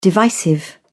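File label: "divisive", British English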